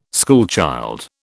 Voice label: "schoolchild", British